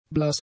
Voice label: "blass", German; adjectives

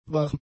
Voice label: "warm", German; adjectives

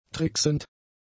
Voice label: "tricksend", German; adjectives

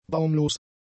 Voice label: "baumlos", German; adjectives

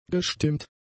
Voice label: "gestimmt", german; adjectives

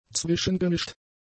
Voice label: "zwischengemischt", German; adjectives

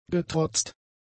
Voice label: "getrotzt", german; adjectives

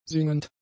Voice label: "singend", german; adjectives

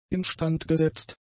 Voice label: "instandgesetzt", German; adjectives

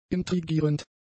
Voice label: "intrigierend", german; adjectives

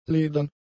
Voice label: "ledern", German; adjectives

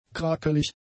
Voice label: "krakelig", German; adjectives

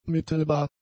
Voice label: "mittelbar", German; adjectives

